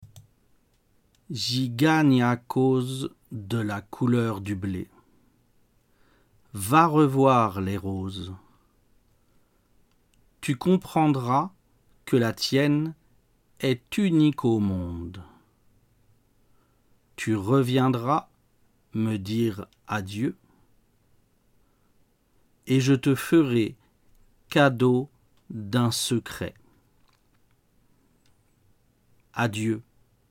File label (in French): voix lente